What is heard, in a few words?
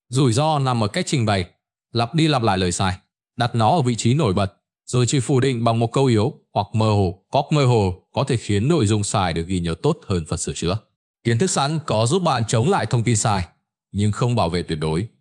narration